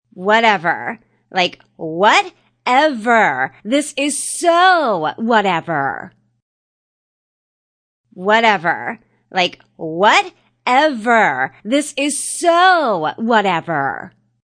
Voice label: Young Lady Says Whatever Multiple, Human Vocal Ringtone; Ringtones; Human Vocal Sound Effects